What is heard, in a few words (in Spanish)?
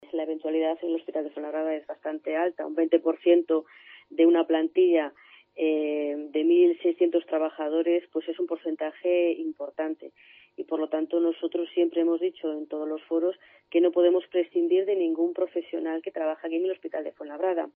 noticias